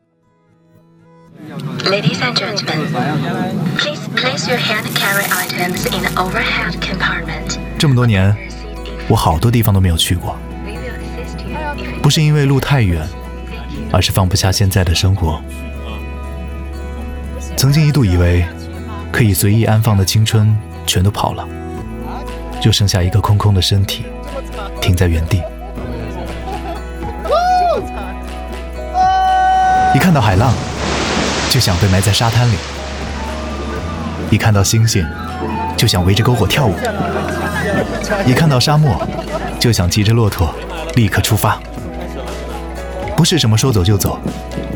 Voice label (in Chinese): 男 年轻温暖广告 旅游类; 途牛网